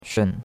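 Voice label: "shun3", Mandarin Chinese; Cours de Chinois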